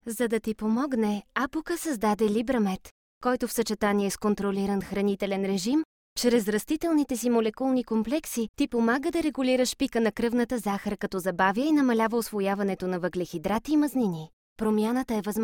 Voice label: bulgarian f commercial; voice demos